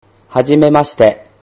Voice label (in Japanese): "hajimemashite", Japanese